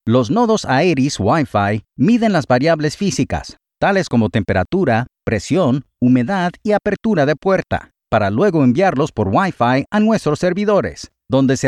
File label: SPANISH